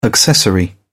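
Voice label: "accessory", British English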